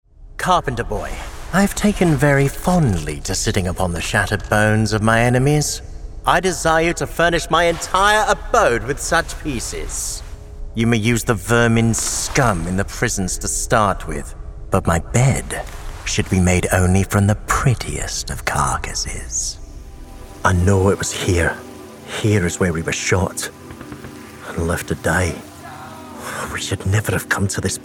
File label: Gaming Showreel, Gaming voice reels